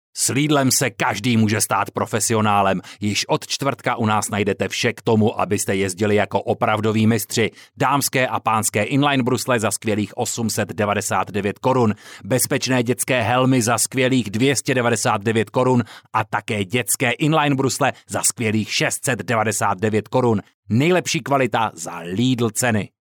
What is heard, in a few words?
male czech voice over sales